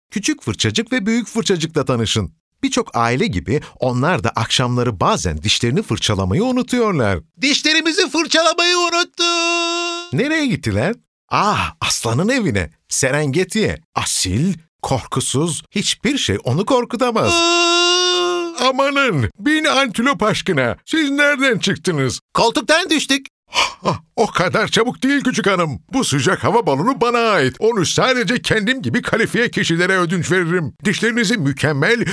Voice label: turkish animation

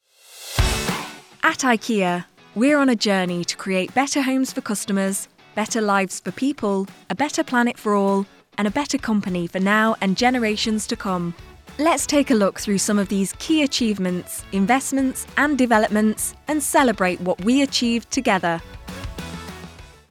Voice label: english british explainer videos

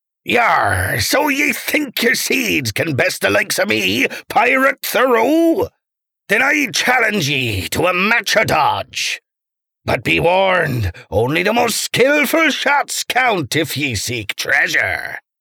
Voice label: english british video games

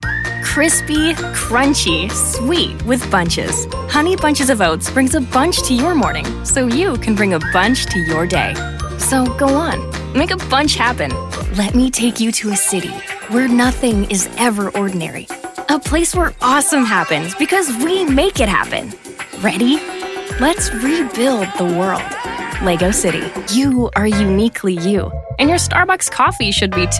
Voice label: english canadian commercial

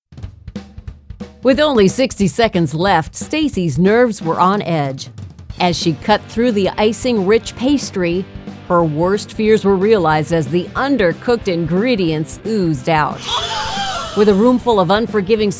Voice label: English American TV shows

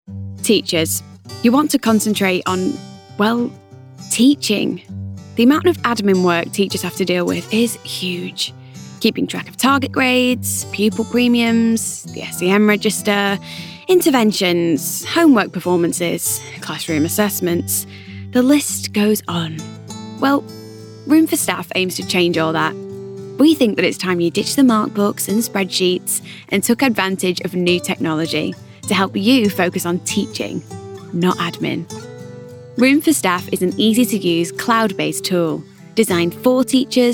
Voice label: english british explainer videos

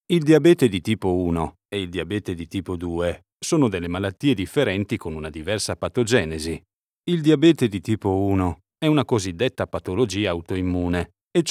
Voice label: Italian podcasts

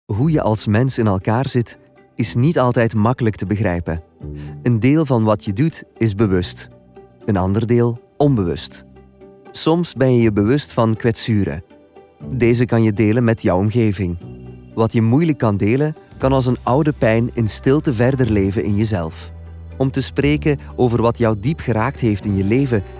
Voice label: flemish narration

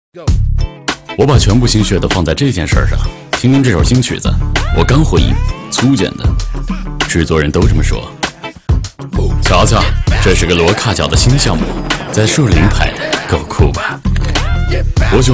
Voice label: chinese mandarin tv shows

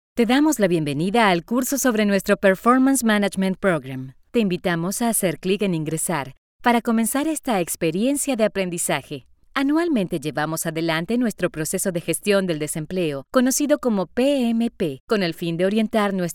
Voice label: spanish latin american e-learning

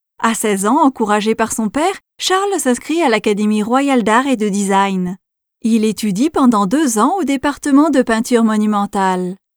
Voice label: french narration

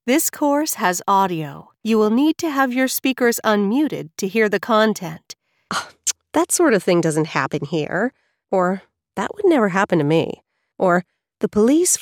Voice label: english american e-learning

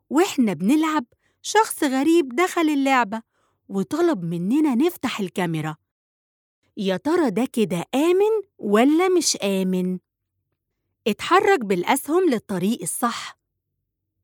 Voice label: Arabic animation; Egypt

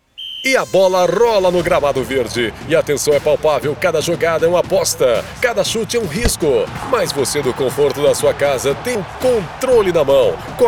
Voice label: portuguese brazilian tv shows

